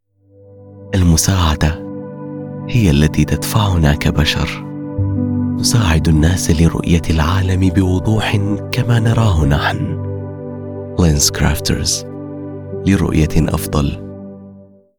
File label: Commercial Warm Caring Standard Arabic; LensCrafters